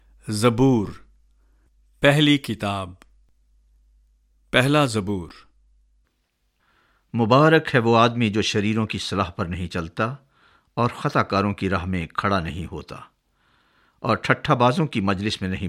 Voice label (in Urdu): urdu Psalms